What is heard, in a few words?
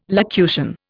"locution", Indian